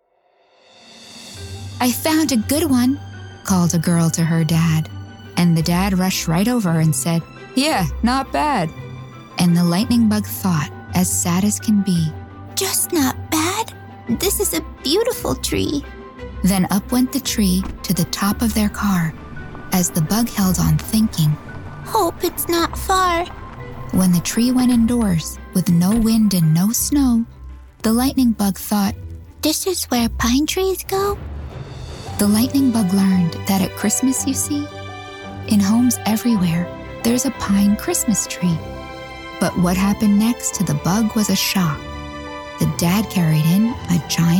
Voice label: Childrens book